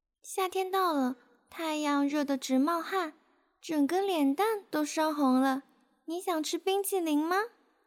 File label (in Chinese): 少女音